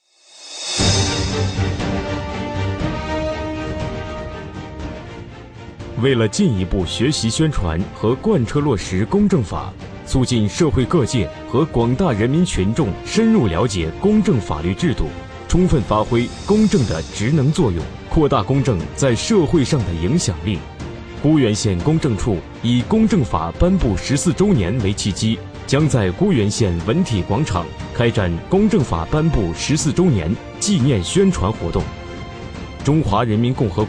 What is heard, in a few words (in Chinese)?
男66号专题汇报 有力汇报